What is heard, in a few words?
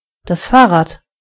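"bike", German; gcompris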